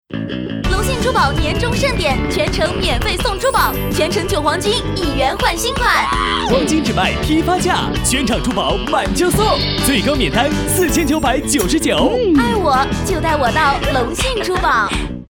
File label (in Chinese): 活泼 珠宝广告 活泼版配音; 隆信珠宝